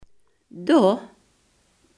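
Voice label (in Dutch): "daar" Limburgish dialect word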